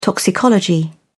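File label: "toxicology", British English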